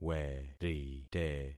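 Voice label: "werite", concatenated syllables; Affixing experiment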